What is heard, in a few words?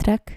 "trek", Dutch